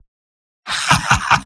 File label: laugh short; Spy; mvm